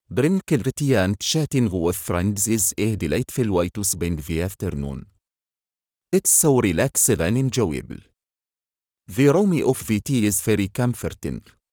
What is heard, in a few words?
Arabia, english accents; english accents challenge